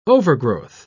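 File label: "overgrowth", British English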